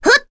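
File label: Vox Jump, Korean; heroes; Chrisha; kingsraid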